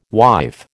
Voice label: "wife", American